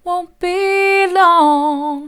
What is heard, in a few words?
wont be long soft; vocal